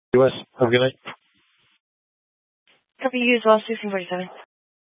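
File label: Incident Tactical, scanner audio recording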